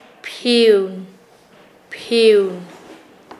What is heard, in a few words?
Nasa Yuwe; recoger granos